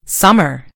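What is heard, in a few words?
"summer", British English; gcompris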